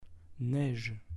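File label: "neige", French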